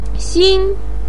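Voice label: "xin1" Chinese syllable, pinyin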